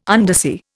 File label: "undersea", Indian